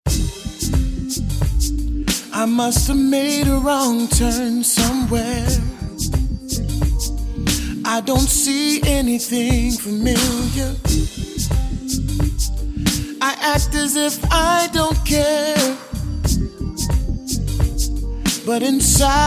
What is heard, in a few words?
Conversation